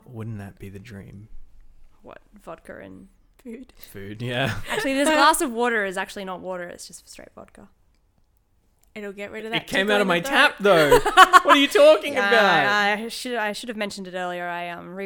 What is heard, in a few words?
Podcast sample